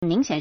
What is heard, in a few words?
"ming2xian3", Chinese